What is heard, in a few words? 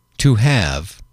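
"to have", English, male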